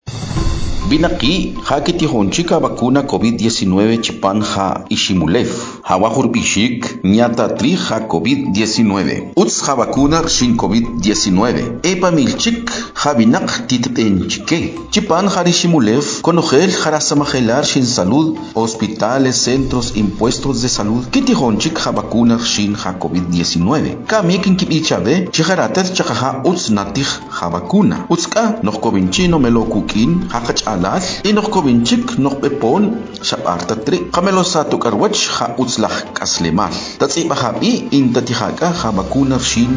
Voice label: Spot Tzutujil